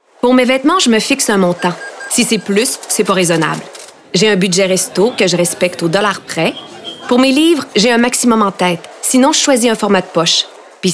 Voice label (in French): Publicité